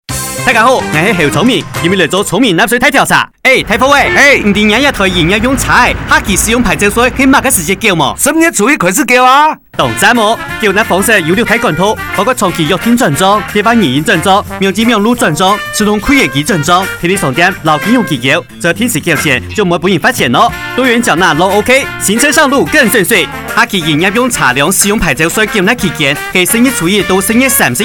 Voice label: 營業用使用牌照稅宣導廣播 客; 102年度使用牌照稅下期開徵宣導短片客語

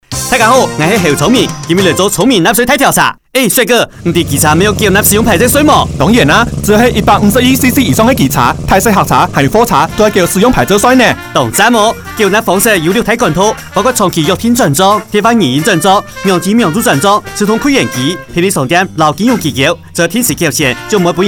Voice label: 上期使用牌照稅 廣播廣告 客語; 30秒; 102年度使用牌照稅上期開徵宣導短片客語

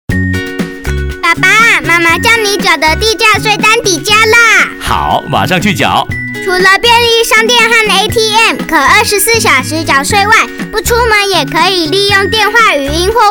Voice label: 全國性地價稅開徵宣導廣播廣告(國); 102年度結合統一發票辦理; 102年度地價稅開徵宣導短片國語

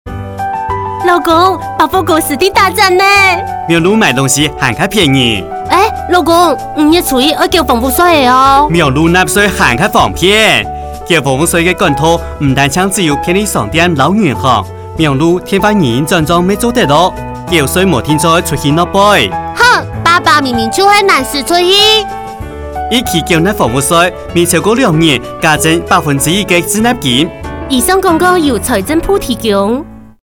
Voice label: 房屋稅 客語版; 廣播; 102年度房屋稅開徵宣導短片客語

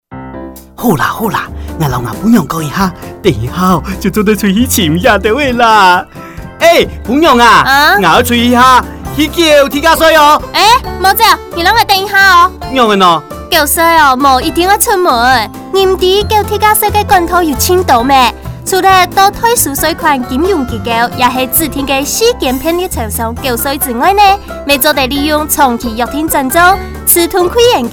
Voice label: 地價稅開徵宣導 廣播廣告 客語版

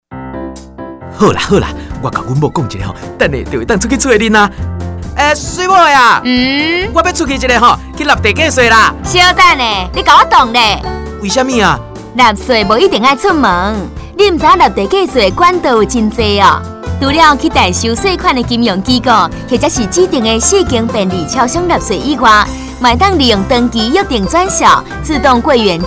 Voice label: 地價稅開徵宣導 廣播廣告 台語版

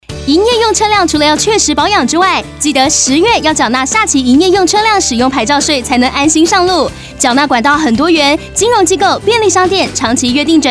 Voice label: 下期營業用車輛使用牌照稅廣播廣告(國語); 使用牌照稅下期宣導短片國語

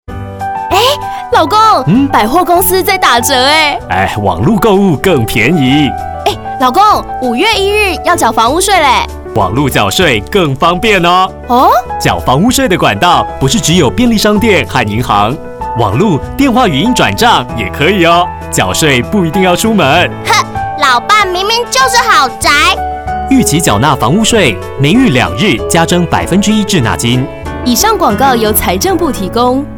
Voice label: 房屋稅 國語版

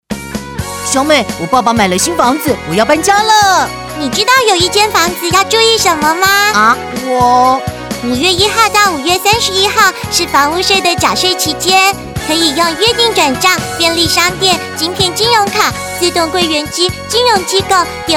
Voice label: 房屋稅30秒廣播廣告-國語

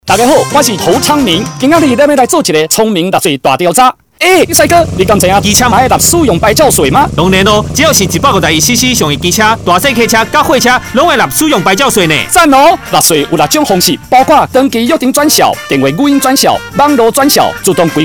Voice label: 上期使用牌照稅 廣播廣告 台語; 30秒; 102年度使用牌照稅上期開徵宣導短片臺語

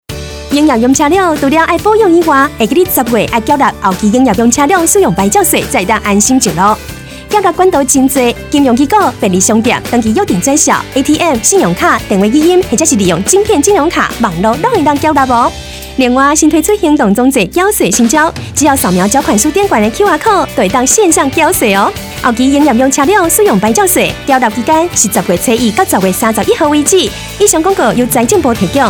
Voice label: 下期營業用車輛使用牌照稅廣播廣告(台語); 使用牌照稅下期宣導短片台語

